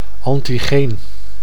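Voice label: "antigeen", Dutch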